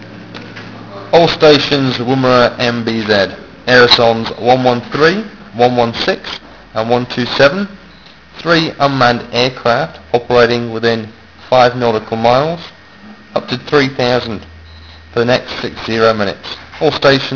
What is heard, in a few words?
radio call; woomera; hargrave